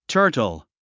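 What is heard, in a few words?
"turtle", English word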